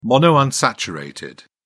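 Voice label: "monounsaturated", British English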